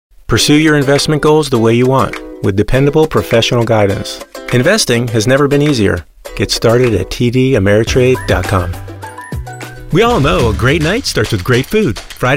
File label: COMMERCIAL, female